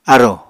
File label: "arò", Apatani